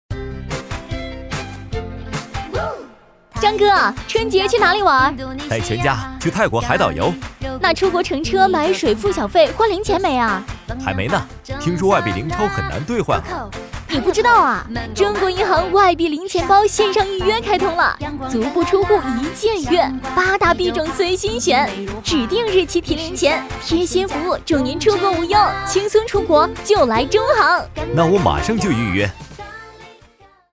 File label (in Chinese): 男 广告 对话; 中国银行外币零钱包